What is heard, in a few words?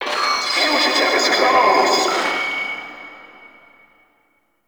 Vocal Loudspeaker; Warframe; Duviri; Ambience; Town Escape; Dax